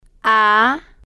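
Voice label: "a", Spanish, female, slow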